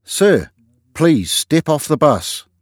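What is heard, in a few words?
Help On Bus, Driver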